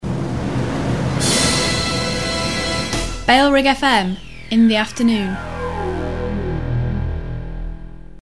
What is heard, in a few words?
in the afternoon, female; bfm; bailrigg fm; bfm jingles ads RSL 1994